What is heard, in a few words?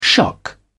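"shock", British English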